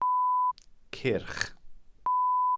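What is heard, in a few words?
"cyrch", Welsh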